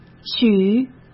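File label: "许", Mandarin Chinese; hsk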